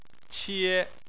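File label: "qie", Chinese, tone 1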